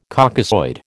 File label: "Caucasoid", American